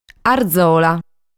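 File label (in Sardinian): "Arzola", Sardinian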